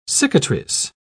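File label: "cicatrice", British English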